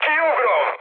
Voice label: bailout, speech hun; War Thunder